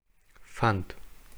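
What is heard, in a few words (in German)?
"fand", German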